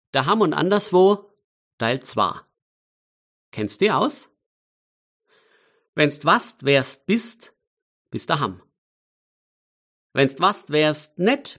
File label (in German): Mundart